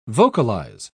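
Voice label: "vocalise", British English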